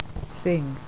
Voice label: "sing", Phonetics

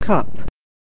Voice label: "cup", English

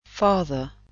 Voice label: "father", English phonetics

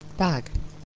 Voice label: "bag", English

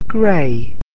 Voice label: "grey", English

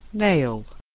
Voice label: "nail", English

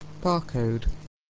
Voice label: "barcode", English

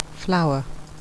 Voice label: "flour", English phonetics

